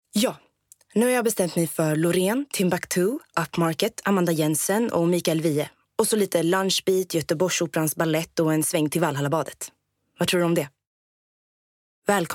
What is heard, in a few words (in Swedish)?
reklam